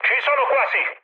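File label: Italian near waypoint mood high, speech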